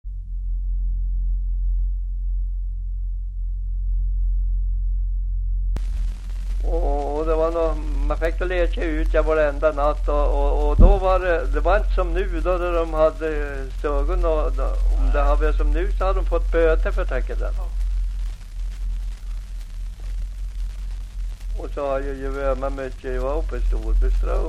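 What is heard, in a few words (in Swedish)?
Aspås Jämtland, svenska sagor dialekter; Folkminnen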